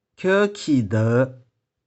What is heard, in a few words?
"khieu6khi2deu5", 玉環